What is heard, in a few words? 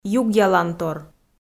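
"югъялантор", Komi, female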